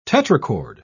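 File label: "tetrachord", English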